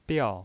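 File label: "biao", Mandarin Chinese, fourth tone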